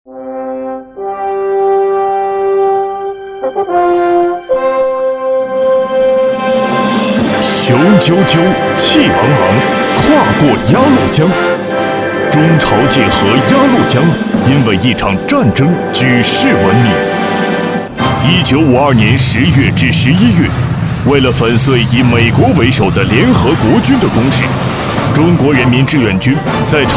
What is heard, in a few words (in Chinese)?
男 专题 激情大气; 志愿军